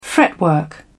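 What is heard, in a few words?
"fretwork", British English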